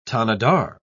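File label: "tanadar", British English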